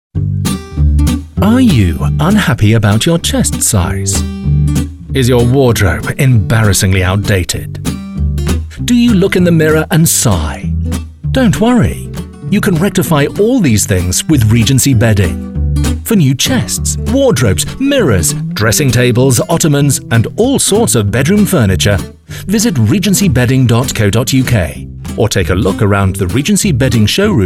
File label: commercial